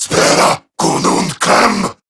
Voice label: Attack, Male